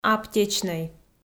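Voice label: "аптечнӧй", Komi-Zyrian, female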